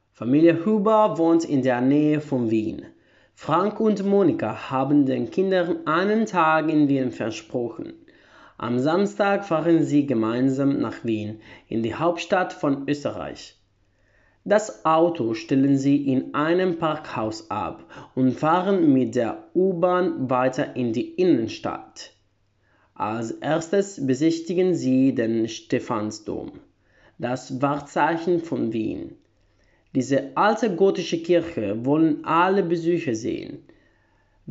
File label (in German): deutsch